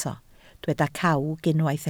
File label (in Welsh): "cawg", Welsh